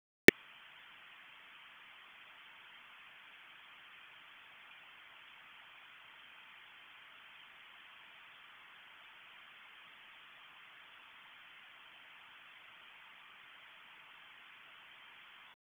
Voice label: EMS ALERT, p25